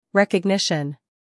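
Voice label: "scandal", American; recognition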